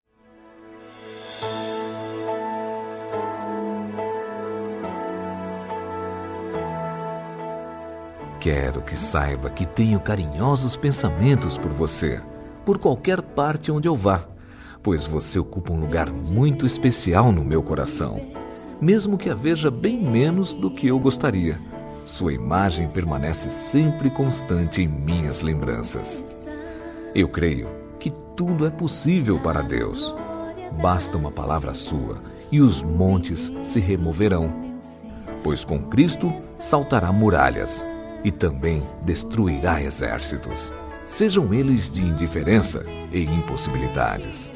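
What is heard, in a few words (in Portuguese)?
Evangélica Paquera, male, telemensagens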